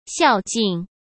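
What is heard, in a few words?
"孝敬" Mandarin Chinese; hsk; chinese-flashcards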